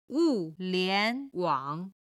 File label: "wu4lian2wang3", Chinese